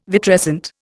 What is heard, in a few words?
"vitrescent", Indian